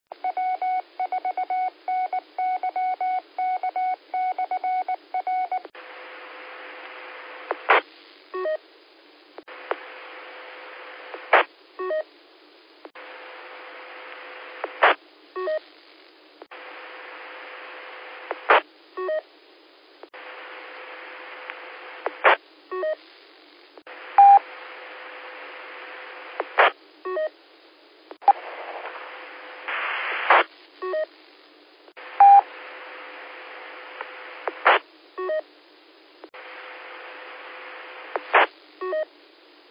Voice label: qso rec simplex logic